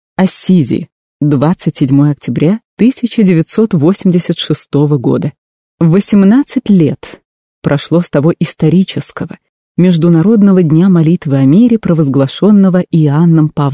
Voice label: russian italian female